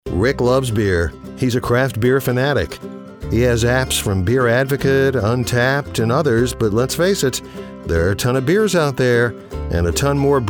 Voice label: Explainer